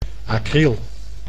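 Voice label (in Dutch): "acryl", Dutch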